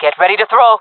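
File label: request grenade, female voice; player vo jungle fem; Counter Strike Model Pack; CS:GO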